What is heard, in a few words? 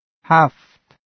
"haft", Farsi